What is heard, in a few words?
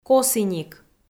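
"косіник", Komi, female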